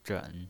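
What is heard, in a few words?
"zhen" Mandarin Chinese pinyin voice, third tone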